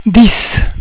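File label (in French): "dix", French; cat numeration doigts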